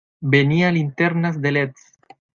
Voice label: linternas, spanish; mozilla voice